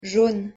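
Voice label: "jaune", French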